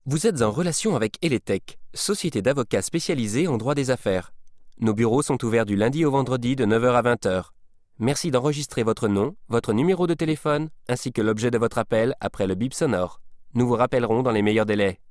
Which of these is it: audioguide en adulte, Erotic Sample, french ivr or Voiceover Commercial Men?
french ivr